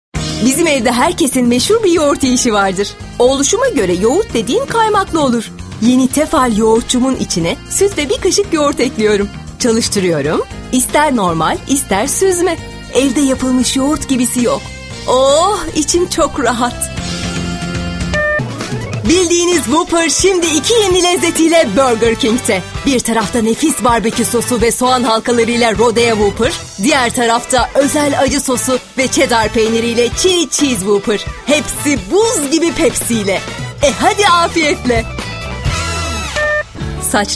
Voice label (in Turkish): reklam, voiceover for commercials